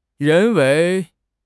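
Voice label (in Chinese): "人为", Chinese; HSK6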